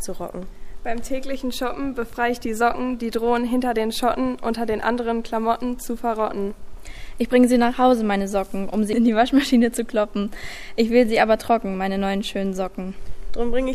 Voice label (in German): Poetry Slam schicksal der waescheleine